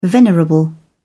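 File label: "venerable", British English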